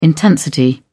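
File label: "intensity", British English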